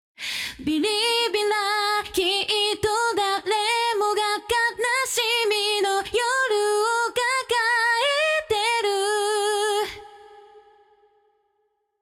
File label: vocal stereo delay off